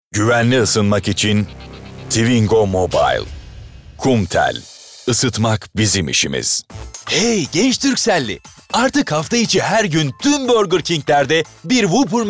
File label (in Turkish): REKLAM